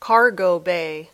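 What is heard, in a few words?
"cargo bay", English words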